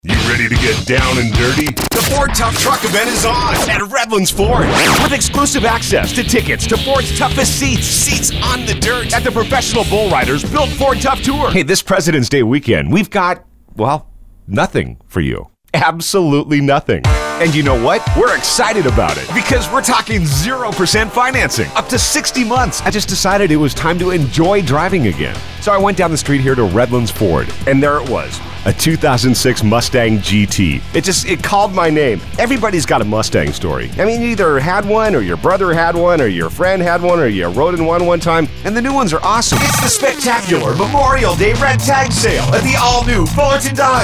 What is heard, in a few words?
Car Dealer